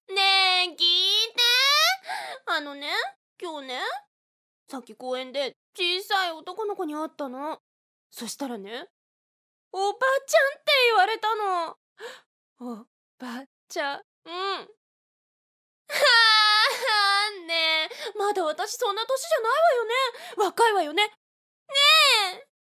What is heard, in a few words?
sample woman